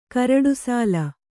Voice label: "ಕರಡುಸಾಲ", Kannada

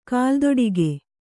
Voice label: "ಕಾಲ್ದೊಡಿಗೆ", kannada

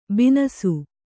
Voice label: "ಬನಸಿ", Kannada

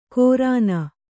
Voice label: "ಕೋರಾನ", Kannada

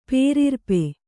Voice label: "ಪೇರಿರ್ಪೆ", Kannada

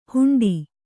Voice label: "ಹುಂಡಿ", Kannada

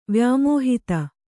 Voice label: "ವ್ಯಾಮೋಹಿತ", Kannada

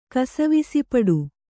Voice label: "ಕಸವಿಸಿಪಡು", Kannada

